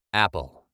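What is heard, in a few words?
apple, British English pronunciation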